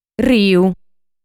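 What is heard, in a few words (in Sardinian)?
"Riu", Sardinian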